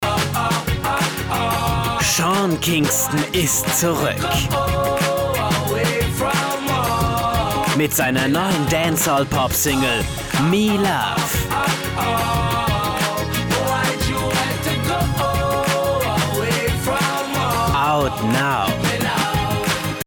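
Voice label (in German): werbung, deutsch männer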